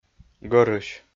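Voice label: "гӧрысь", Komi, male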